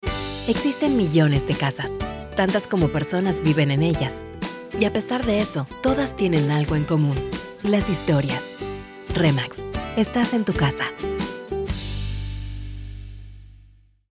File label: spanish latin american narration, latin american spanish voice actors